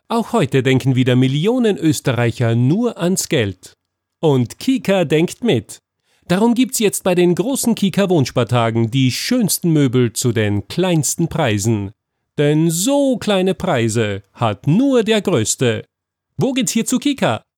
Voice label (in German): werbung; kika